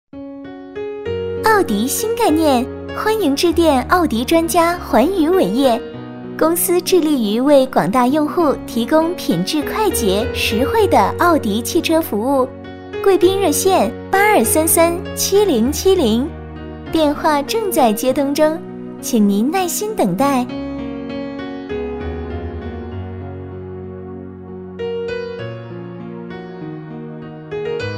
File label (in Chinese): 【彩铃】; 奥迪新概念